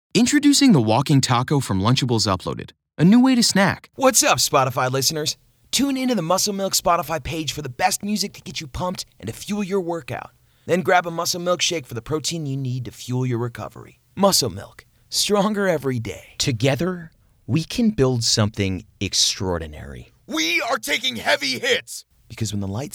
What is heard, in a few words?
Dry VO, voiceover commercials